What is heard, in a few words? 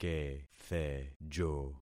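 "kethejo", concatenated syllables; Affixing experiment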